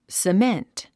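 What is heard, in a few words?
"cement", English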